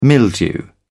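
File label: "mildew", British English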